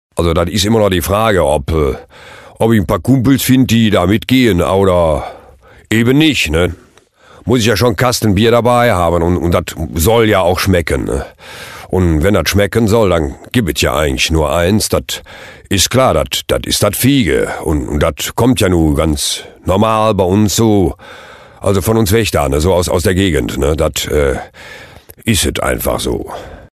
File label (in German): ruhrpott tief; Sprecher in Duisburg, Düsseldorf, Essen, Köln, Krefeld, Ratingen; Muttersprache Deutsch